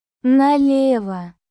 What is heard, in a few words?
russian phrases